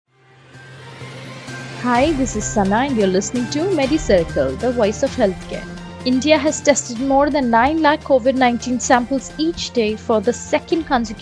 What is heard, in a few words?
english news